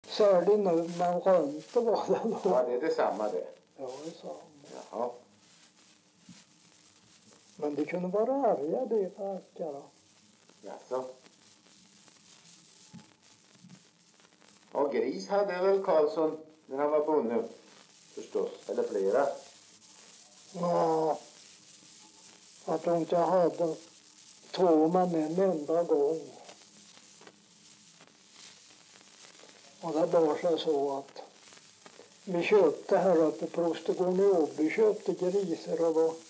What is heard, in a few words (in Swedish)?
dialekter; Folkminnen; Svenska sagor